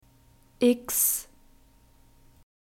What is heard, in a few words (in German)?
"x", German